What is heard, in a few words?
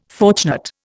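"fortunate", Indian English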